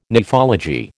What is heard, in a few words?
"nephology", American